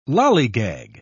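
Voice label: "lollygag", British English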